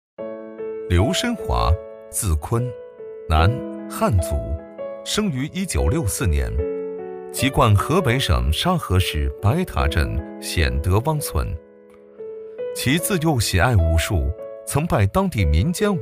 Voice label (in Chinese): 男 人物介绍 娓娓道来; 大气磁性; 质感磁性